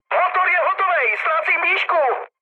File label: damaged engine, speech cze, mood med; War Thunder